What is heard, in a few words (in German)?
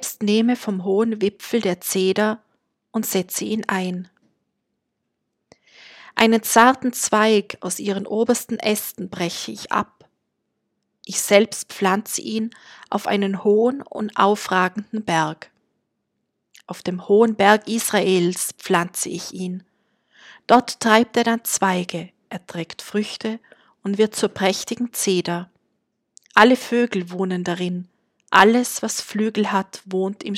Lesung; samen saeen